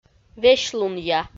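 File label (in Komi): "весьлунъя", Komi, female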